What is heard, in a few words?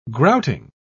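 "grouting", British English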